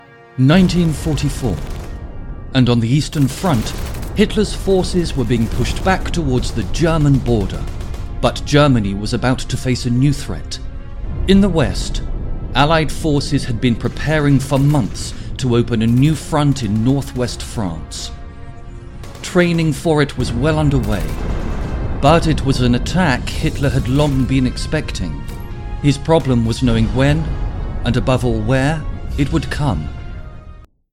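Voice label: Documentary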